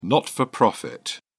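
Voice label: "not-for-profit", British English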